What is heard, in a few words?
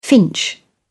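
"finch", British English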